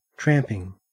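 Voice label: "tramping", Australian English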